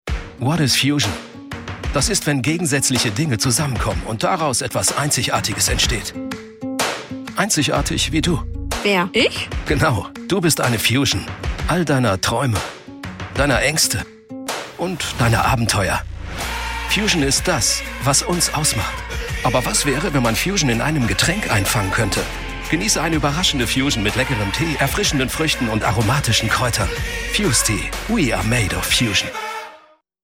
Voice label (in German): Authentisch jugendlich; TVC; Fuzetea